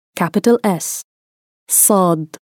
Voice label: "Saad", arabic